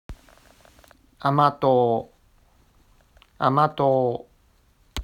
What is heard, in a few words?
Japanese Word Amatou Pronunciation